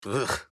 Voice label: Kai Emote Negative